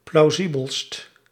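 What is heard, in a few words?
"plausibelst", Dutch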